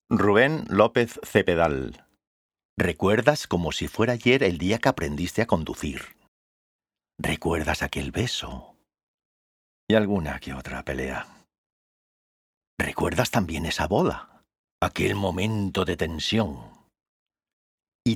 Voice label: Corporativo

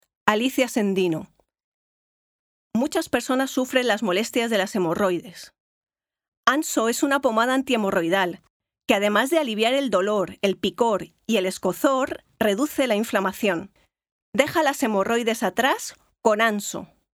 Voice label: Publicidad

